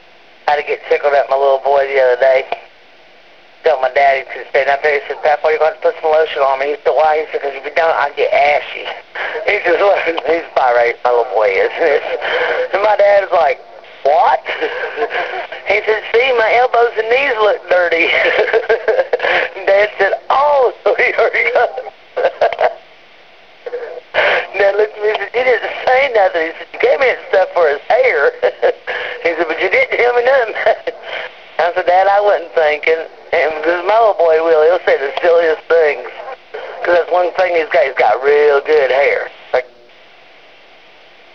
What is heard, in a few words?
hick message